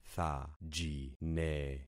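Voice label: "thajine", concatenated syllables; Affixing experiment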